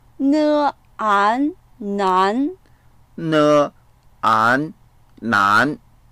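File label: "nan2" spelled, Mandarin Chinese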